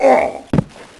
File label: Battle Dwarf Death Sound; Heroes3